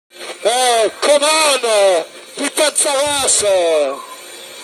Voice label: angry; leclerc